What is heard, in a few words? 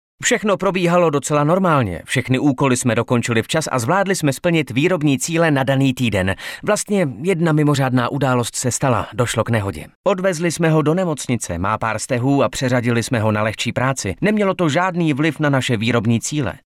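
Male Czech drama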